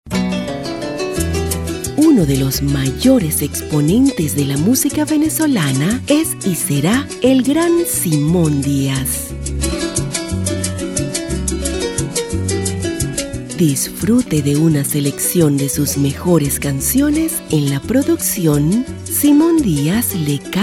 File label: music promo